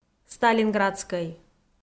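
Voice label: "сталинградскӧй", Komi-Zyrian, female; audio dict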